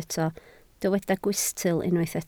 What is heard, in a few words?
"gwystl", Welsh